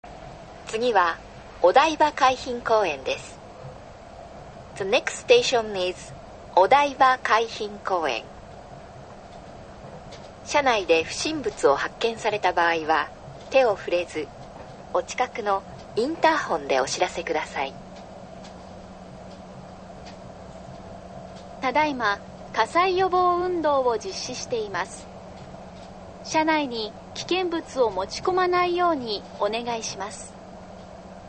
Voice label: 芝浦ふ頭到着前, 車内放送; 芝浦ふ頭発車後; yurikamome

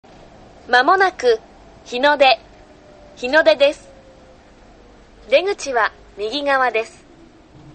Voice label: 竹芝到着前竹芝発車後, 車内放送; 日の出到着前; yurikamome

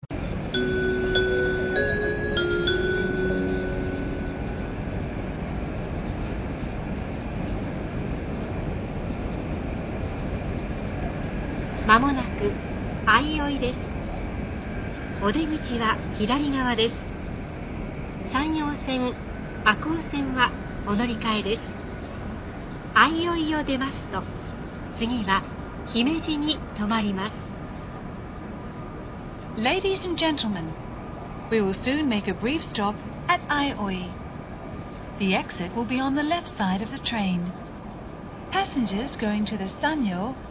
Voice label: 新幹線車内放送; tokai; 新幹線 ひかり３７８号 東京行きひかり３７８号。２００５年１０月; 相生到着前

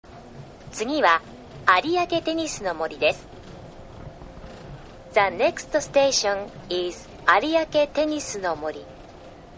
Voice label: 市場前到着前, 車内放送; 市場前発車後; yurikamome

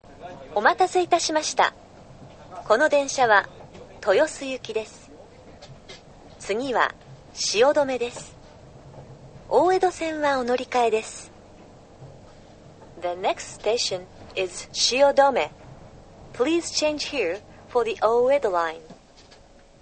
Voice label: 新橋発車前, 車内放送; 普通（新橋→豊洲） 豊洲行き普通。2007年11月; 新橋発車後; yurikamome

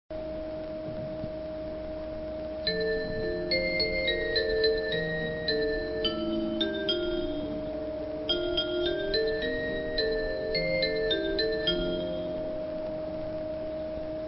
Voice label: 新幹線車内放送; tokai; 東京到着前

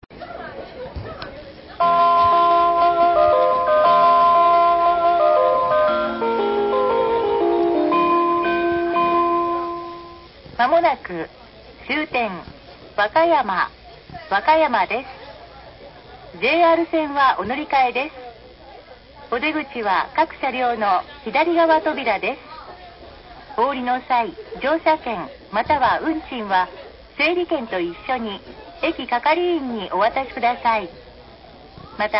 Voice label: 車内チャイム 車内放送; wakayamadentetsu; 和歌山到着前

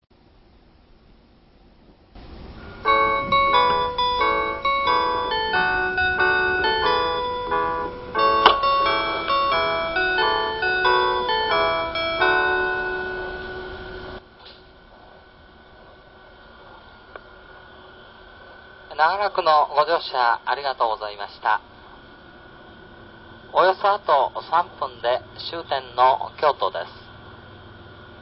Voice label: 車内チャイム 車内放送; 特急 スーパーはくと２号 京都行きスーパーはくと２号。２００４年８月; 鳥取民謡（手）

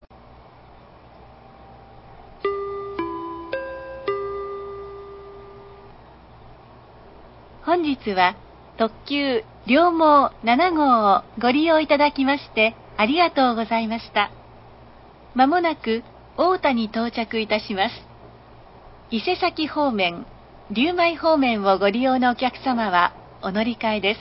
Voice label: 太田到着前, 車内放送; tobutetsudo

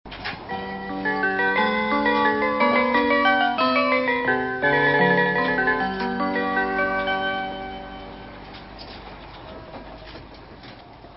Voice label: 新幹線車内放送; 東北・山形・秋田新幹線チャイム; やまびこ107号＋つばさ107号; 仙台行きやまびこと山形行きつばさ107号。2006年11月; 山形到着前

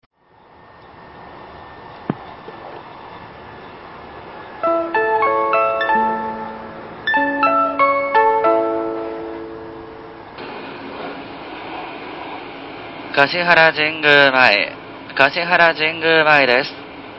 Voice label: 車内チャイム 車内放送; kintetsu; 自動放送チャイム; 特急; （阿倍野橋⇔吉野）; 吉野行き特急。2008年5月; 橿原神宮前到着前; yoshino

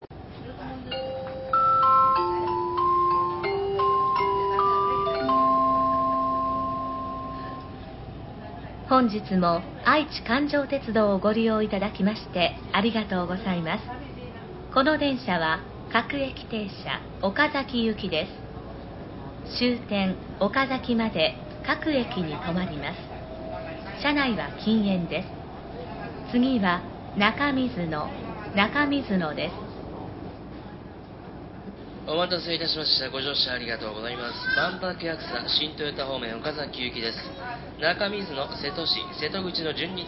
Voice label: 高蔵寺発車後, 車内放送; 普通列車（高蔵寺→岡崎） 岡崎行き。２００５年７月; aichiloop

